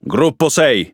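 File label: Italian speech Pilots Bomber; World Of Warships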